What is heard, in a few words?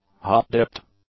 "hot-dipped", English; adjectives